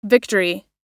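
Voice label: "victory", English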